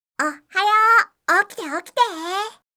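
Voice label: "ohhayou okite okite", Japanese; aisatsu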